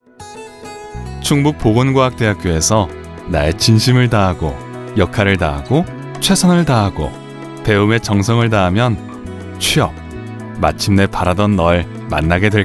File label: Corporate